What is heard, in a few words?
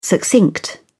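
"succinct", British English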